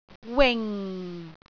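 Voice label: "wing4", Cantonese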